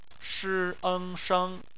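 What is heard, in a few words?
"sheng", Mandarin Chinese; phonetics; bpmf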